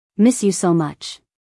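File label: "Miss you so much", American English, female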